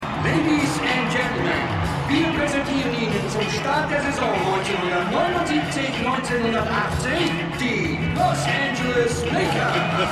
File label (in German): Stadionsprecher; Winning Time